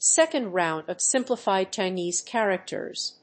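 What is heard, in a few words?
"Second round of simplified Chinese characters", English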